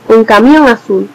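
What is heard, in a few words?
blue truck, Spanish; vehicles; stamps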